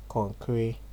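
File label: "konkri", Nigerian Pidgin, male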